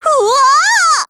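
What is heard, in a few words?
Japanese; M21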